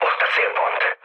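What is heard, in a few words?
Hungarian near waypoint mood med, speech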